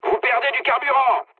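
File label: French tank damaged mood low; War Thunder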